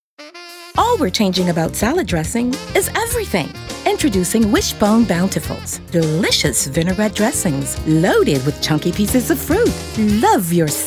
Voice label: Commercial Voiceover